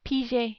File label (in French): "piger", French